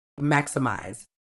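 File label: "maximise", British English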